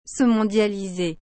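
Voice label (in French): "se mondialiser", French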